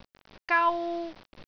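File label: "gau1", Cantonese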